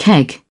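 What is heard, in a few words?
"keg", British English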